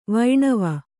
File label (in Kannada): "ವೈಣವ", Kannada